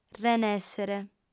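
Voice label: "renèssere", Sardinian, female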